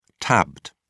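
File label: "tabbed", British English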